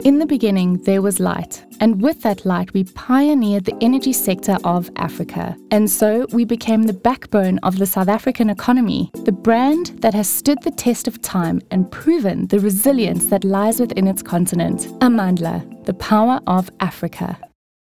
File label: Corporate